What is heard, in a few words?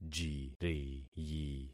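"jiriyi", concatenated syllables; Affixing experiment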